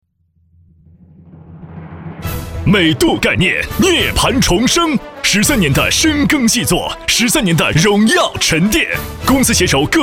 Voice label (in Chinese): 男 直销 激情昂扬; 美度